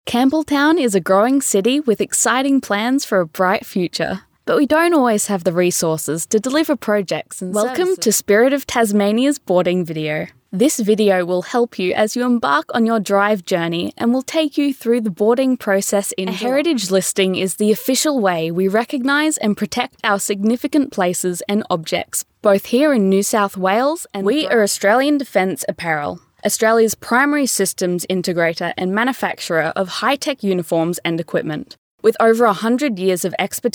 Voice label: Natural